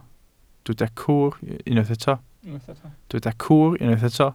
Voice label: "cwr", Welsh